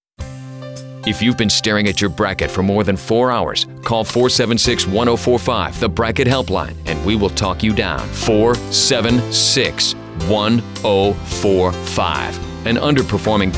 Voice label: comercial